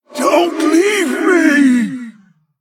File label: Male, Attack; Reliquary Of Souls